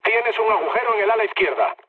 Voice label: left wing, mood low, speech; War Thunder